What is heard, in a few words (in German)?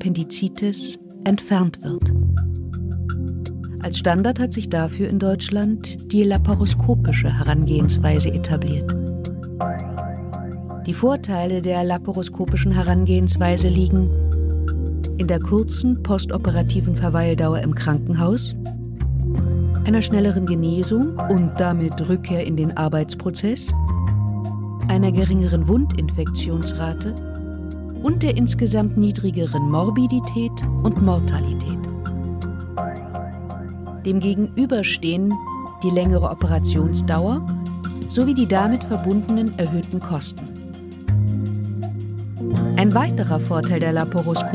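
Appendektomie Erklaer Audio, Erklärtext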